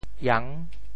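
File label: "iang6", Teochew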